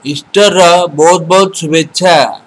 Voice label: easter odia